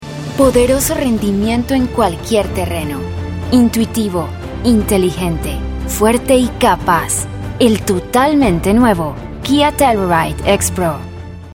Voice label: spanish latin american automotive, colombian spanish voice actors; Voz versátil y expresiva, hablante nativa de español.